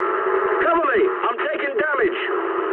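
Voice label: aircraft damaged mood high, speech English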